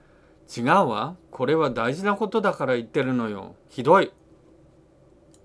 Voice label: dialogue line, japanese